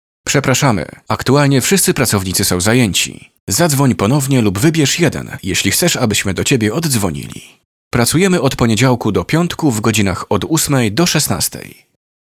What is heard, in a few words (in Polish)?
demo zapowiedzi